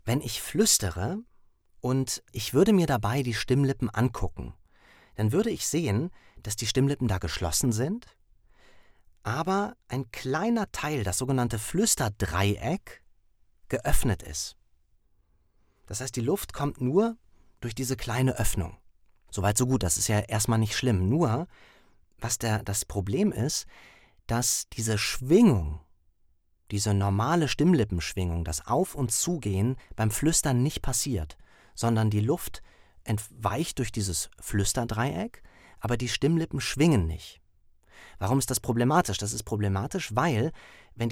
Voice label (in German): Wigwam Stimme Zusatz Fluestern